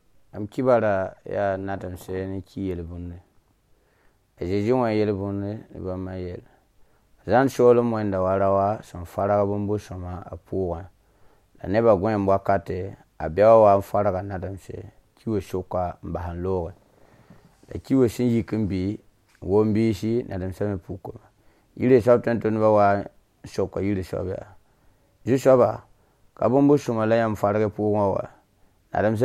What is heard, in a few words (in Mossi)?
Mossi Moore